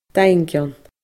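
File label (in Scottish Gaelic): "daingeann", Scottish Gaelic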